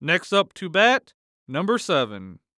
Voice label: Sports Human Voice Male Young Man Baseball Announcer